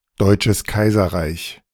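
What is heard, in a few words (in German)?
"Deutsches Kaiserreich", German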